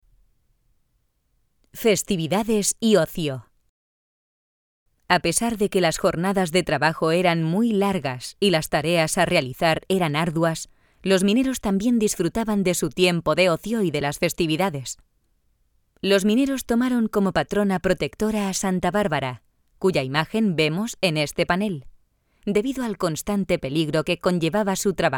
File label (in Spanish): audioguia; audioguia museo de la mineria; esp